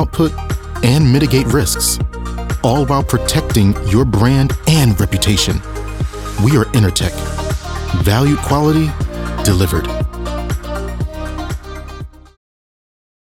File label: Customer Service, Corporate, Hopeful, Encouraging; Intertek